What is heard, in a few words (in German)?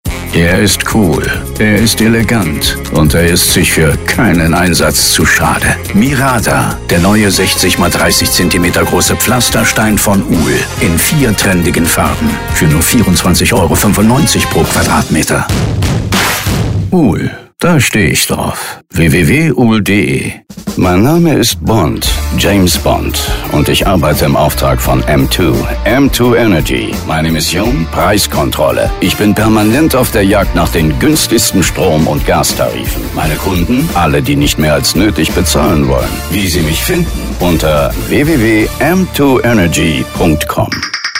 telefonansagen sprecher; Hollywood Sprecher am Telefon; pierce brosnan